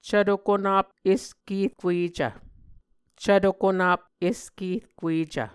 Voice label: "cvto konap eskerkuecv", muscogee; Mvskoke Words with Voicing Consonants; Martin, Jack B., and Margaret McKane Mauldin. 2023. A Dictionary of Creek/Muskogee; SIL International